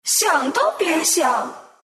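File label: deny, Chinese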